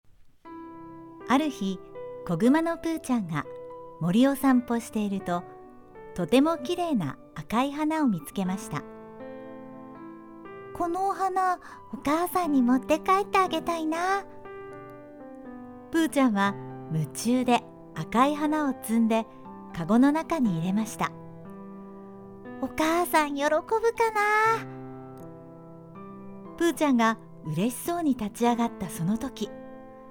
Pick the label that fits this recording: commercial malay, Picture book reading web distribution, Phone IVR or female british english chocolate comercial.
Picture book reading web distribution